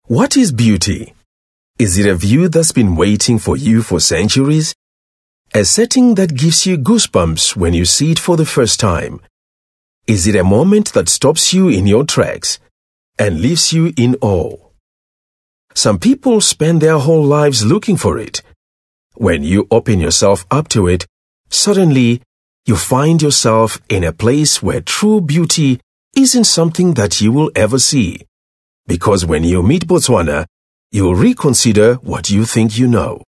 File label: English Softsell